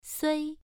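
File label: "sui" Mandarin Chinese, first tone, pronunciation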